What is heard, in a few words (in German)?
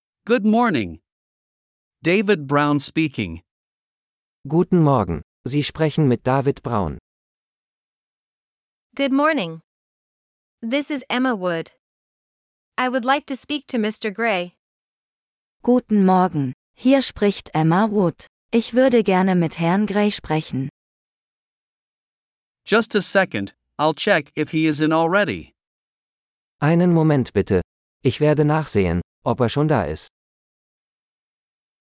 Dialog mit Uebersetzungen; Telefonieren